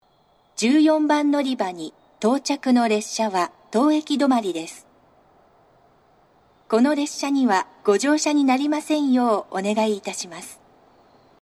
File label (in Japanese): 14番のりば接近放送（当駅止まり）, 長崎 西九州新幹線ホーム 自動放送